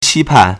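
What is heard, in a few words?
"qi1pan4", Mandarin Chinese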